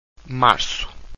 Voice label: "marco", Portuguese

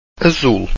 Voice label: "azul", Portuguese

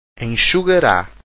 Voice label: "enxugara", Portuguese

